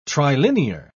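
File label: "trilinear", British English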